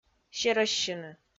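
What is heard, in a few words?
"серӧссьыны", Komi, female; kpv audio dict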